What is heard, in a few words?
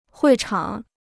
"会场", Chinese